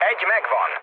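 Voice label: player killed enemy, mood low, Hungarian; War Thunder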